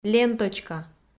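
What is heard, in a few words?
"ленточка", Komi, female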